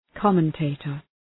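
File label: "commentator", English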